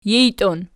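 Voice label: "jijtion" nominative, Archi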